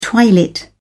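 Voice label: "twilit", English, British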